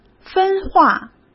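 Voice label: "分化", Mandarin Chinese; chinese-flashcards; hsk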